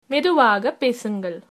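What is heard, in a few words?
slowly, Tamil, female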